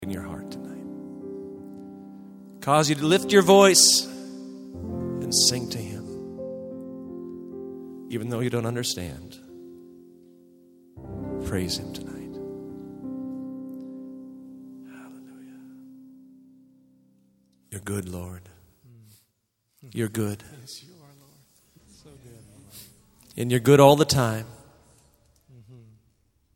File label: Narration